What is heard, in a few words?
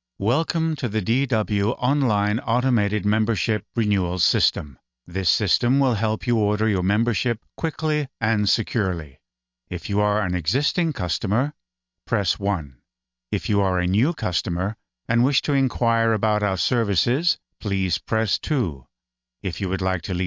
American English IVR